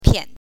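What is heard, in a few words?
"pian", Mandarin Chinese, neutral tone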